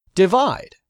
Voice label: "divide", English; 3000 words